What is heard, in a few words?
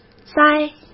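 "灾" Mandarin Chinese; hsk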